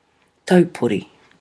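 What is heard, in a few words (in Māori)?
"taupori", Māori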